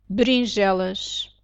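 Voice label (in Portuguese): "beringelas", Portuguese; legumes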